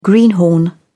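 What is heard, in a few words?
"greenhorn", British English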